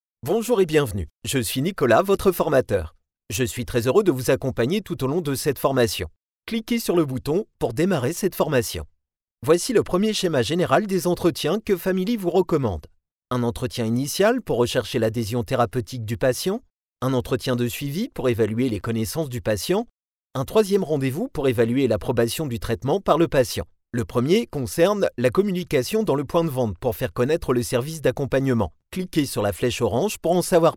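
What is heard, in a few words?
demo e-learning; Microphone Neumann TLM 103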